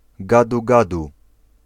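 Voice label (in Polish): "Gadu-Gadu", Polish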